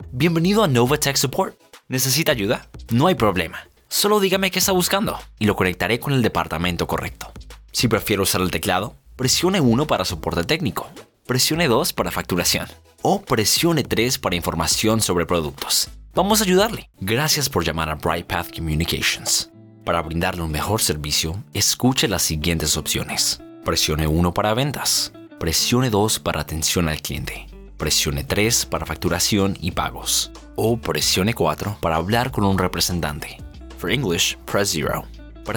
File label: IVR Spanish